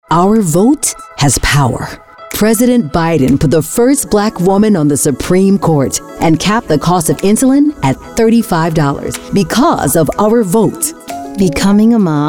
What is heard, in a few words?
english american political ads, american voice actors